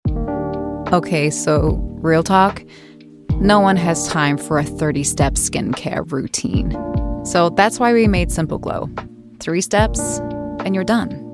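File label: commercial, influencer Gen Z millennial; en-AU; 40s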